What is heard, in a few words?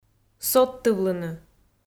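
"содтывлыны", Komi-Zyrian, female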